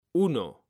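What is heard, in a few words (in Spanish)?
"uno", Spanish, male; mekanta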